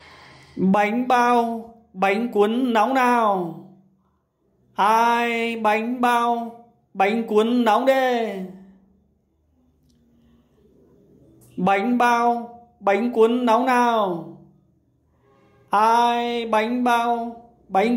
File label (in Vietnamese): Tieng rao ban banh bao banh cuon nong